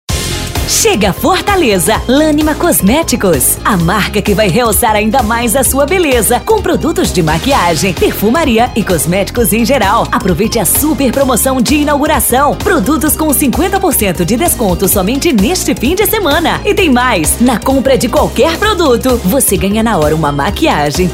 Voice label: locutora

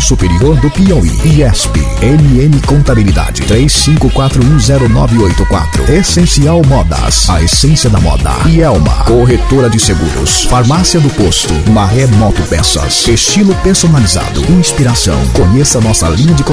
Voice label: spot boate; insonia balsas ma

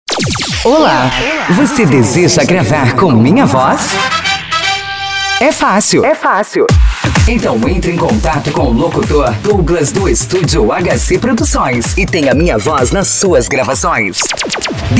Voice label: voz jovem djs e festas varejo padrão reguee

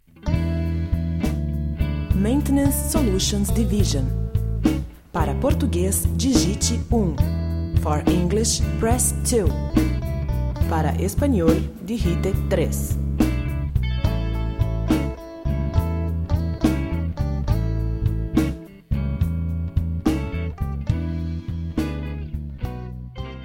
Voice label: espera telefanica em traas idiomas; andritz

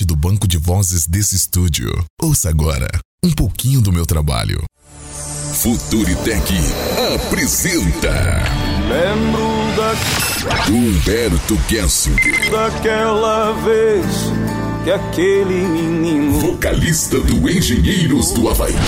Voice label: demo locutor; studio madson

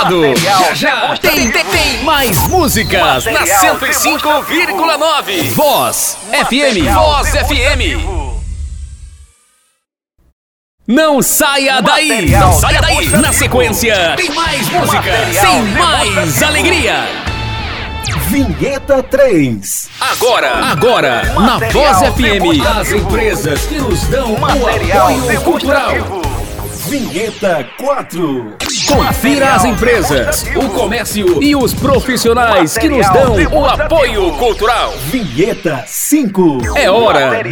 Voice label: demonstrativos rádio; porta voz parana

